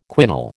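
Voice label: "quinol", American English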